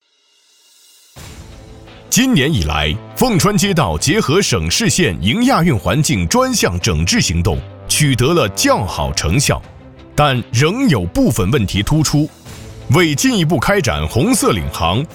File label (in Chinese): 男 宣传片 大气激情 紧迫感 警示; 凤川街道